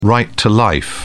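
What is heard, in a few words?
"right to life", British English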